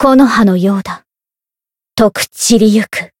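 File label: win, Japanese; eva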